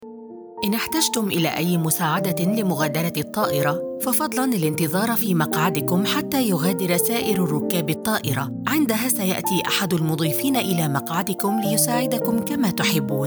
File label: arabic audio guides